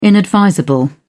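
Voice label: "inadvisable", British English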